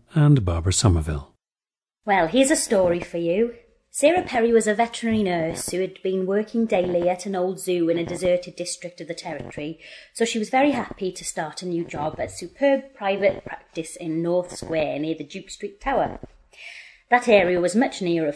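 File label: wales, International Dialects of English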